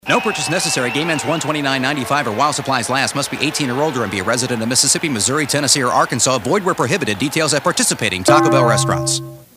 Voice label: tag, VO; Taco Bell